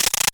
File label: Zombie